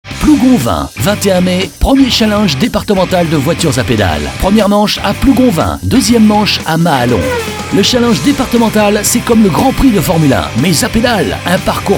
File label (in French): spot; voiturepedales